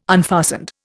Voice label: "unfastened", Indian